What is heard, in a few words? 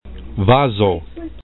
"vazo", esperanto